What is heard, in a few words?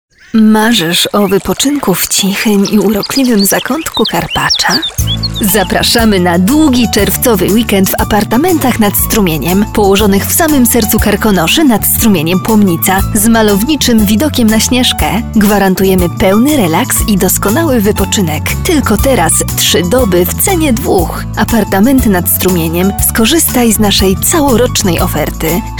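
Polish, female, commercial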